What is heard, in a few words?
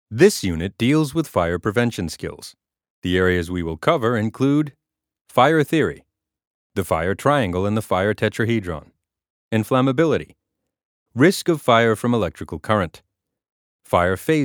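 American elearning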